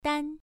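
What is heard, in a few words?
"dan" Mandarin, first tone, pronunciation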